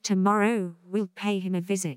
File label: CREAKY